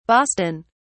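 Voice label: "boston", British English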